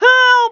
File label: female help; cs2d; cityrp; pedestrian